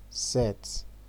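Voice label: "set", Nigerian Pidgin, male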